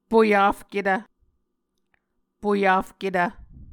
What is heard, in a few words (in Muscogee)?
"poyafketv", Muscogee